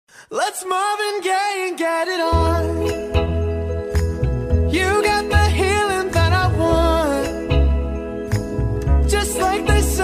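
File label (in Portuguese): MENSAGEM DE CONQUISTA male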